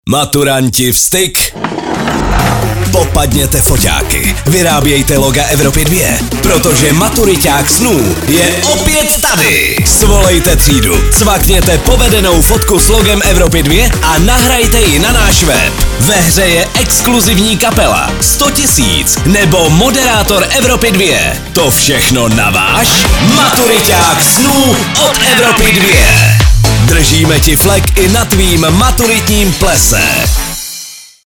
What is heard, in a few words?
promo; evropa2; maturitak